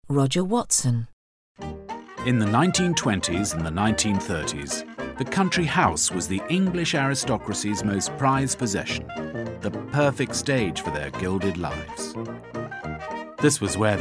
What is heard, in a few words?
narr